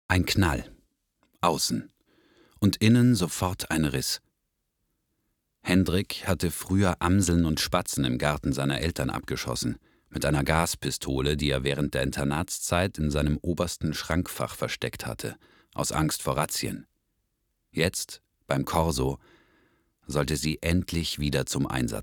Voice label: Hoerbuch

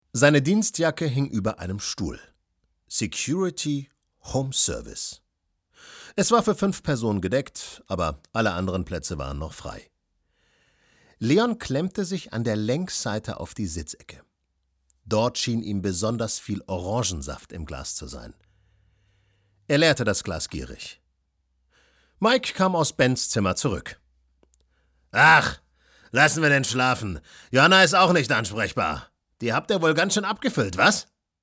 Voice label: hoerbuch, Audiobook (Hörbuch), German; Klaus-Peter Wolf - Nachtblauer Tod

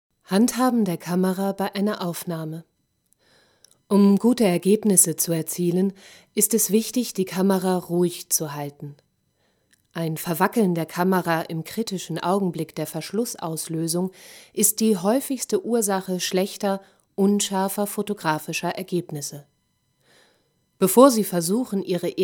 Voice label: Neutral

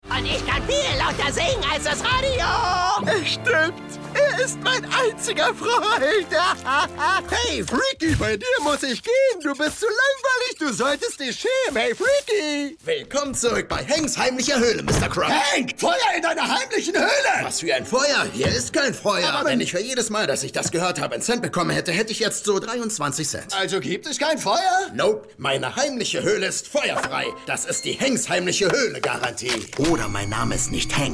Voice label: Trickstimme Anime; Stimmgerecht; LOFT VOICES

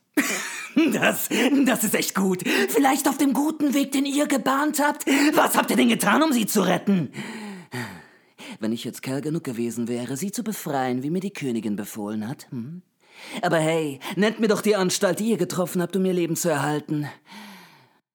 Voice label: Psychopath Hörspiel; LOFT VOICES

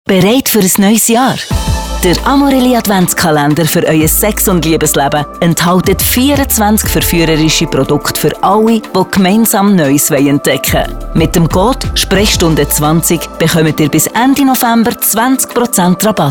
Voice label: PODCAST WERBESPOT; AMORELIE

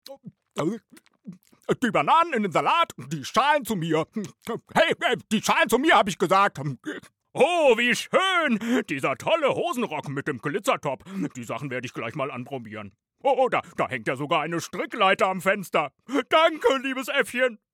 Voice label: trickstimme

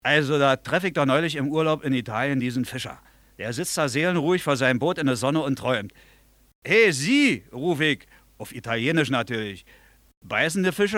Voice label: Dialekt

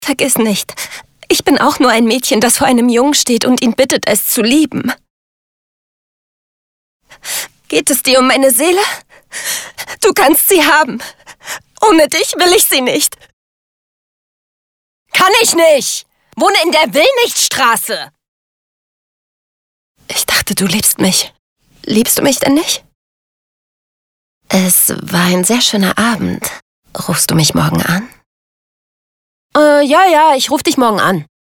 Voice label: Schauspiel; LOFT VOICES

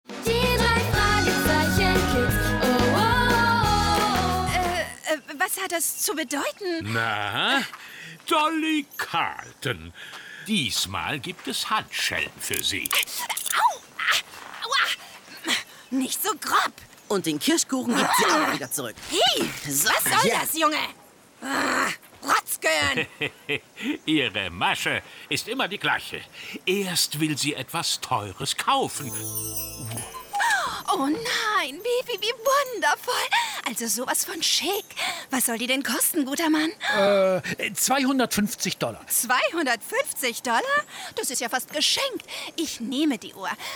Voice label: hoerspiel schrill tussi ueberdreht

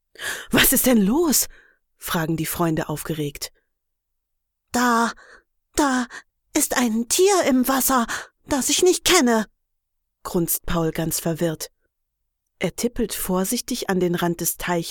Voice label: Kinderhoerbuch

